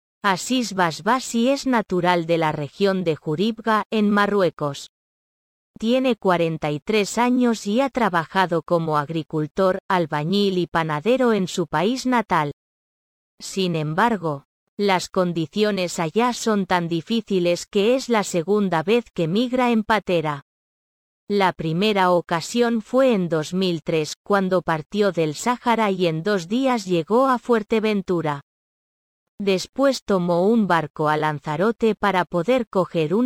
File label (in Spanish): audio espanol